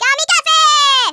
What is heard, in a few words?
kamikaze, Hispanic; Worms Armageddon